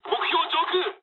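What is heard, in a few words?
near waypoint mood low, speech japanese; War Thunder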